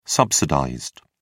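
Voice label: "subsidized", British English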